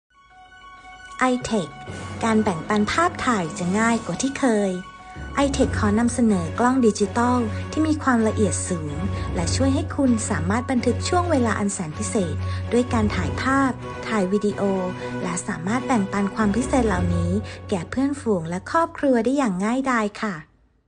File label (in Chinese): 泰语女 外语 小语种 讲述